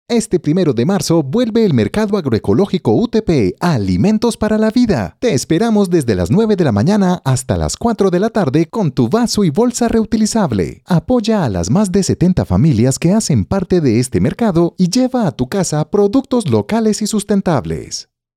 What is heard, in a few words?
Spanish Latin American promos